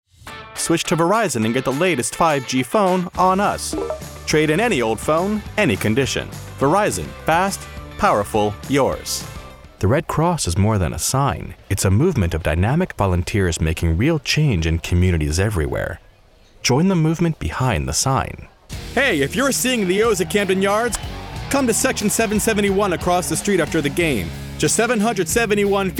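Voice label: Commercial, male voiceover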